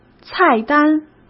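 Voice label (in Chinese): "菜单", Mandarin; chinese flashcards; hsk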